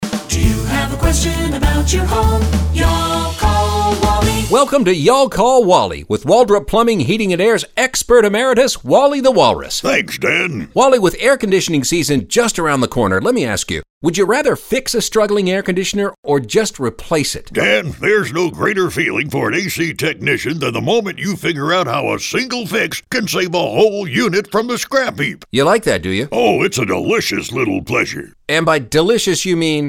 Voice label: radio ads; Delicious Repairs